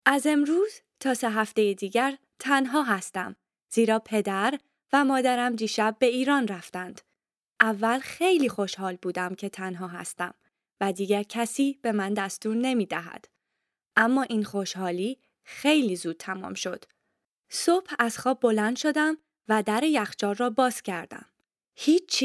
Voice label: text farsi